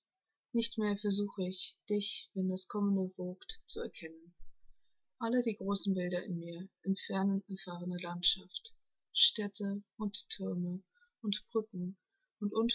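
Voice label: Du im Voraus verlorne Geliebte, German, Poetry Reading, female; by Rainer Maria Rilke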